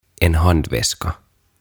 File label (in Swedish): "En Handväska" "Käsilaukku"